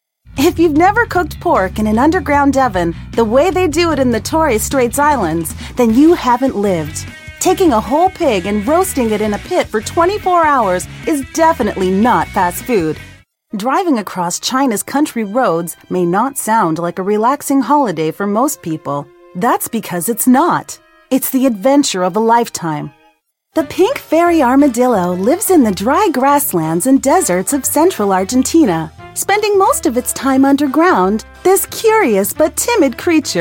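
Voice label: American, narration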